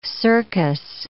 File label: "cercus", English